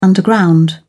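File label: "underground", English, British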